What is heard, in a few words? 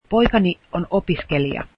finnish my son is a student